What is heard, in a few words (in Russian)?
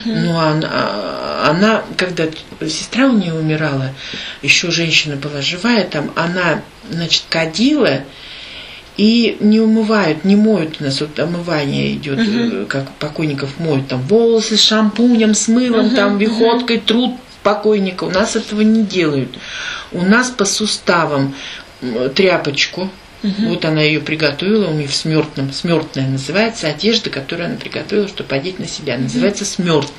среднеобский говор; вехотка